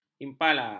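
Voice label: "impala", Malay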